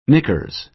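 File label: "knickers", American English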